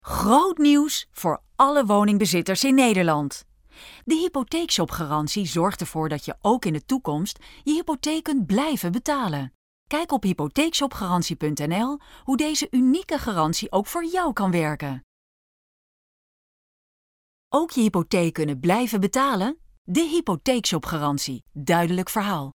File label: Commercial; hypotheekshop